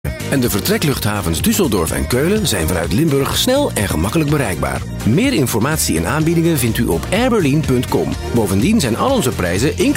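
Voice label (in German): Dutch Dynamisch; Fremdtake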